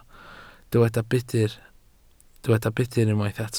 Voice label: "budr", Welsh